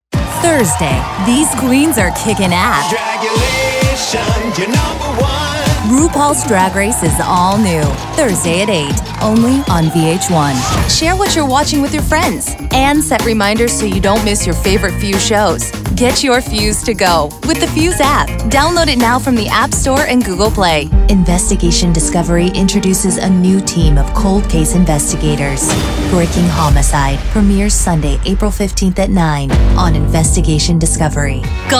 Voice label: Promo